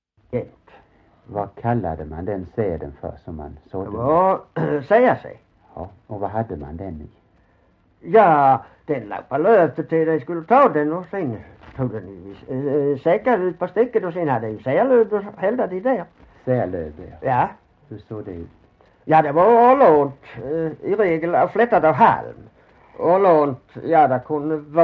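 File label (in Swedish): Hörja Skåne, dialekter; Folkminnen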